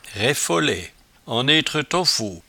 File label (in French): "raiffolaie", patois jura